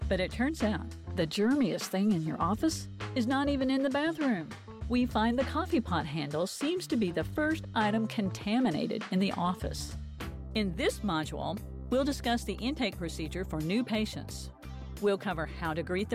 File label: MEDICAL NARRATION